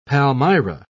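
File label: "palmyra", British English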